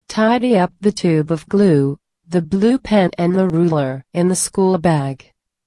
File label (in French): consigne anglais; La consigne en anglais; Formation TNI Interwrite 1 - LVE bis